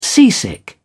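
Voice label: "seasick", British English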